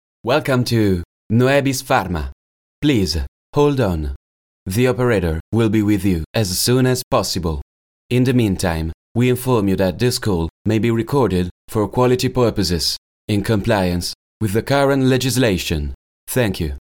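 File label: Italian, male, English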